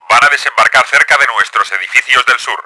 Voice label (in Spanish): dropship, Spanish; Battle Engine Aquila; XBOX